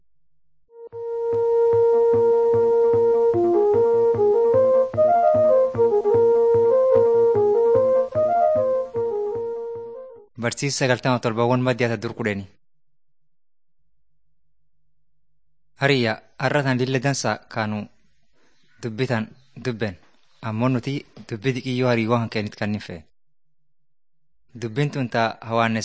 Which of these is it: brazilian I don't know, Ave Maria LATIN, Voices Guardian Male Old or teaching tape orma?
teaching tape orma